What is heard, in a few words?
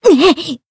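damaged, voice, Japanese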